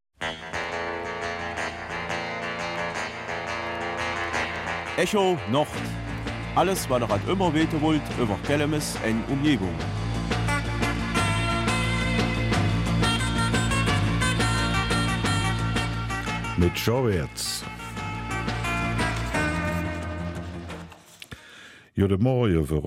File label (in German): Kelmiser Mundart; Theaterstück Kelmisère aje Meer!